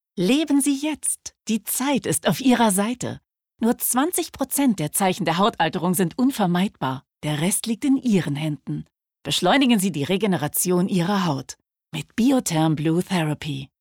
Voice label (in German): Werbung; Biotherm